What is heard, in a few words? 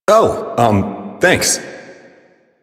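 Citizen Male, English; Warframe; Mall; Citizens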